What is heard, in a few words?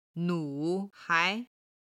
"nu3hai2", Chinese